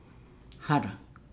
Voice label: "pandanus", Nukuoro